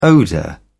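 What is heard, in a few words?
"odour", English, British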